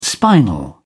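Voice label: "spinal", British English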